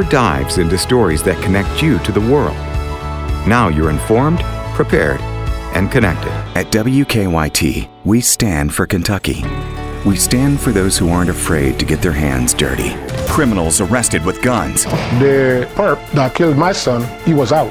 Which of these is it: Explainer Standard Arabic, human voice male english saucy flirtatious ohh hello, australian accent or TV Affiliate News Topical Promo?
TV Affiliate News Topical Promo